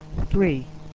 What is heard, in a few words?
"Three", English